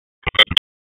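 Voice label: Raleigh Police North District Tactical, p25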